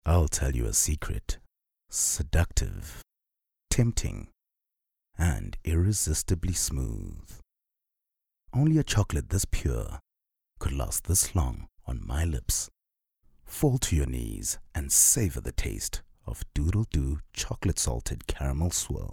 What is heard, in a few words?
English Intimate